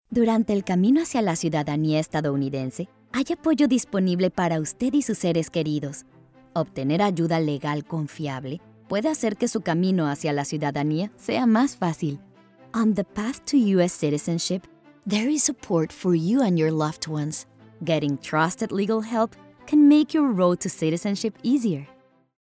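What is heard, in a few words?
Spanish Voice Over, Legal Bilingual; eLearning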